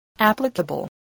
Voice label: "applicable", English